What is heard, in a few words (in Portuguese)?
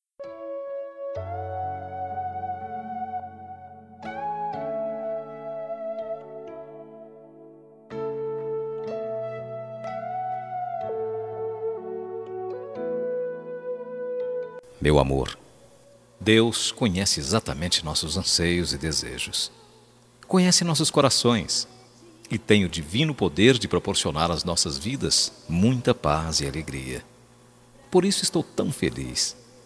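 ROMANTICA EVANGELICA male, telemensagem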